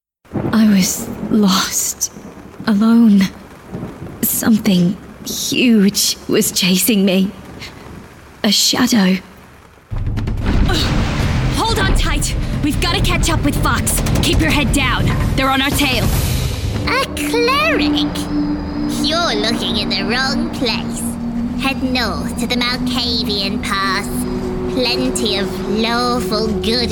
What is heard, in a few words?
English British video games